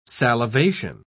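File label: "salivation", British English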